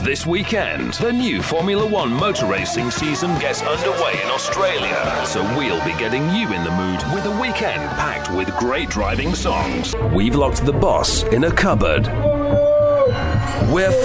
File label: radio imaging